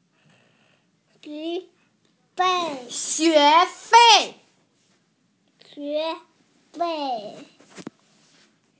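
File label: "tuition", Mandarin Chinese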